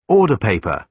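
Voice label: "order paper", British English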